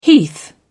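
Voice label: "heath", British English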